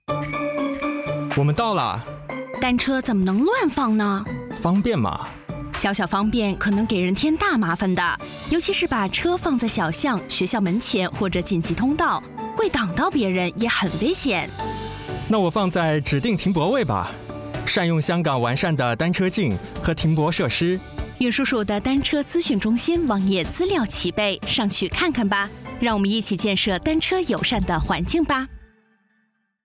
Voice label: bicycle parking radio; 普通话; 电台宣传声带